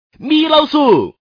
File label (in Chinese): man; guizhou